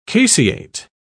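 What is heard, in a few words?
"caseate", British English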